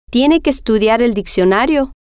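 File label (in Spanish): tiene Que Estudiar Diccionario, spanish, female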